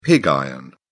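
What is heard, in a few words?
"pig iron", British English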